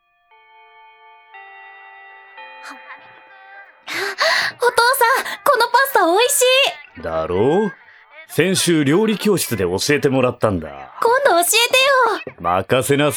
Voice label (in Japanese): オーディオドラマ; 妄想彼女。２